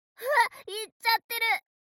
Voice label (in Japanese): mob 女子 台詞